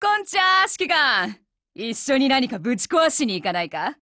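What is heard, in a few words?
Japanese, Voice; GF Alarm; SR2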